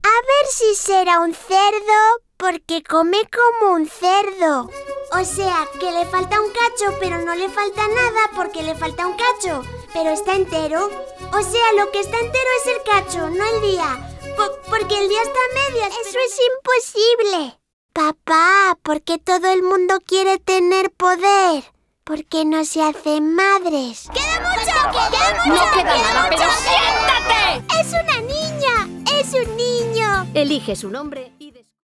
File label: spanish animation; spanish voice over talent